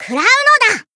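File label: finish, Japanese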